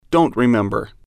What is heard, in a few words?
don't remember, English